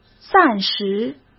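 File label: "暂时", Mandarin Chinese; hsk; chinese-flashcards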